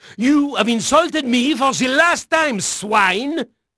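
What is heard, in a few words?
French male, voice; Pirates Of The Caribbean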